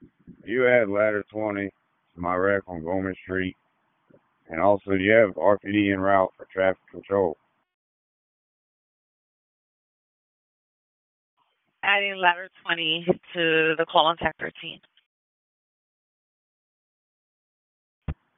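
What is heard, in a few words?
Fire Department HQ Dispatch; RFD; wake; p25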